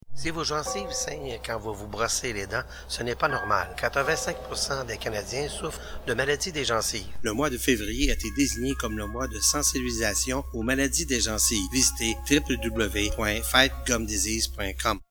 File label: PSA French